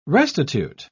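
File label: "restitute", English, British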